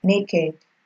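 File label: "naked", Nigerian Pidgin, female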